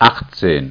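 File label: "achtzehn", German; ZAEHLEN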